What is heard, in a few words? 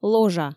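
"ложа", Komi, female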